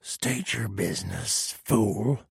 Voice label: trader male dislike greeting